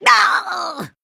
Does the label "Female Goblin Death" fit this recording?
Yes